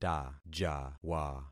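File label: "tajawa", concatenated syllables; Affixing experiment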